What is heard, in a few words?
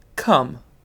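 "cum", British English; pronunciations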